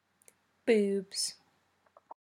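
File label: "boobs", British English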